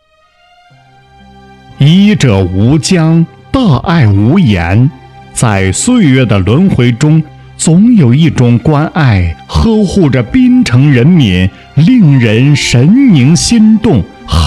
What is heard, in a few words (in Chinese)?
医院宣传片; 大连大学附属新华医院